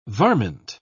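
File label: "varmint", British English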